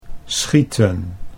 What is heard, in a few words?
"schieten", Dutch